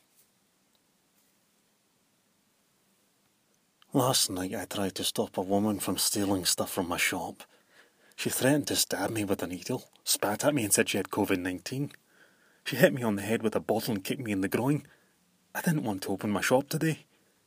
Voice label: Mild Scottish; Crimestoppers Scotland